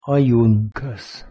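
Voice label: "h2yuh1nkos", Proto-Indo-European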